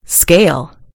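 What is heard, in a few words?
"scale", British English; gcompris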